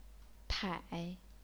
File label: "tai3", chinese pinyin